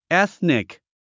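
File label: "ethnic", English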